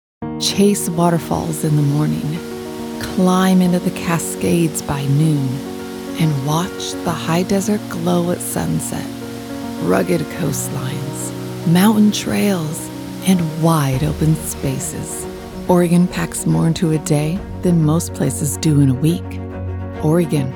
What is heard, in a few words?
Commercial